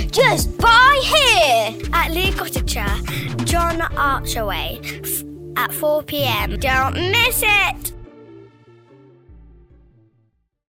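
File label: summer fair, audio adverts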